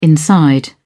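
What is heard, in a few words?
"inside", British English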